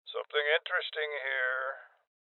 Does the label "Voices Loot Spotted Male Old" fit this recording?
Yes